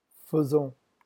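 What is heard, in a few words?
"faisons", French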